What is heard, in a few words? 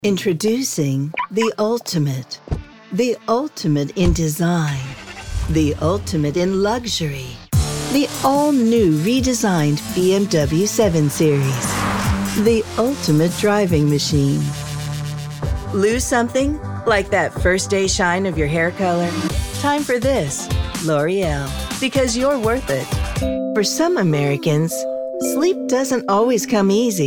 Commercial